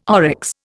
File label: "oryx", Indian